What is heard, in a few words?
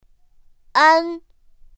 "ān", pinyin; pinyin2; pinyinji